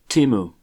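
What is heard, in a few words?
"Temu", US English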